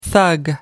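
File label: "thug", British English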